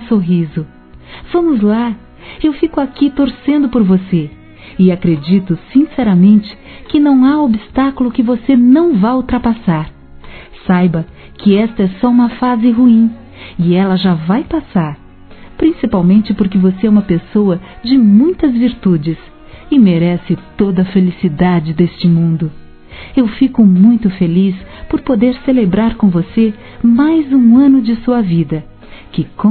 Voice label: ANIV COM OTIMISMO (F); Aniversários; Aniversários diversos; fem

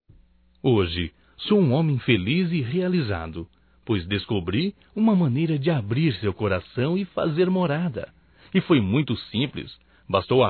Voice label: DECLARAÇÃO, Telemensagens, Românticas, masc

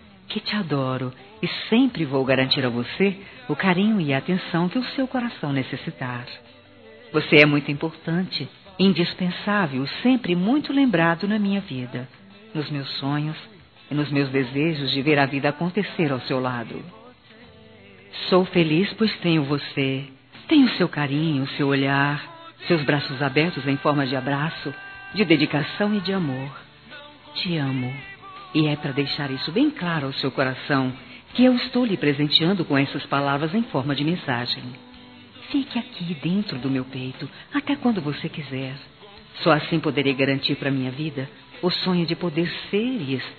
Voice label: Aniversário Sertanejo Romantico Feminino

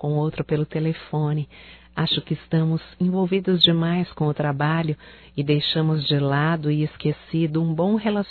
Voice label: SAUDADES AMIZADE, fem, Só Voz

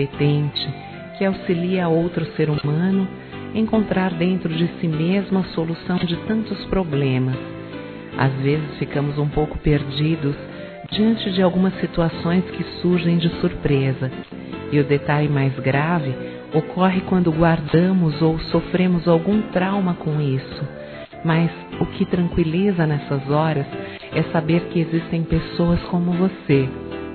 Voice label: psicólogo F, telemensagens homenagens; fem

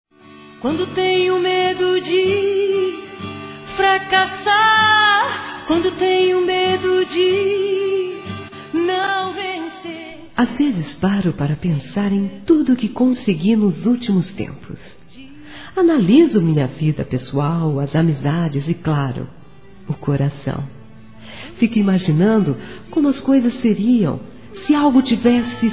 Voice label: Evangélico Aniversário Romantico Feminino; Aniversários

